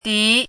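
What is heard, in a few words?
"dí", Chinese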